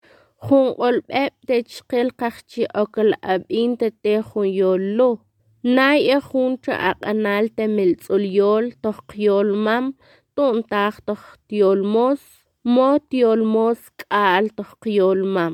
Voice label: mam female